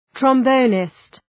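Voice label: "trombonist", English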